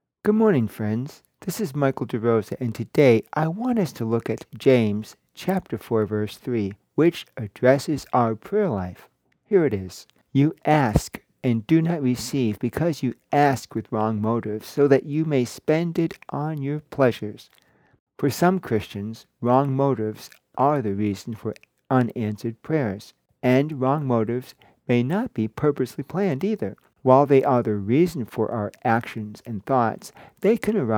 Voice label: Radio Sermonette; James 4 3; Wrong Motives